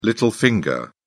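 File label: "little finger", British English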